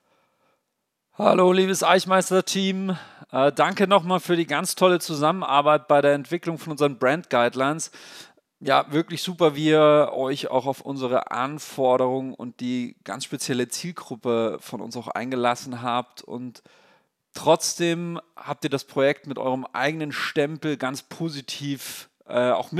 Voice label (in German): Voice Testimonial; Eichmeister; Crewmeister